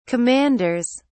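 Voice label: "commanders", English, British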